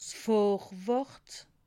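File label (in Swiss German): "vorwort", Alsatian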